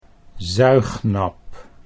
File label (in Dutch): "zuignap", Dutch